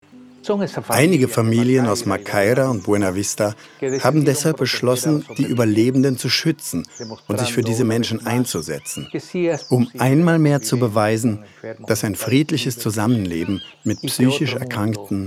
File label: Voice Over